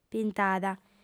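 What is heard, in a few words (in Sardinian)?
"pintada", female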